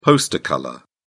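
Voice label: "poster colour", British English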